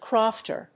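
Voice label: "crofter", English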